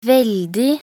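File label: "veldig", Norwegian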